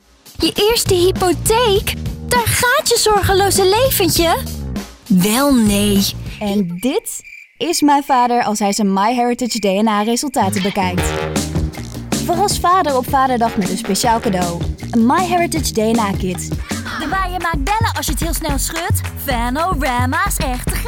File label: Commercial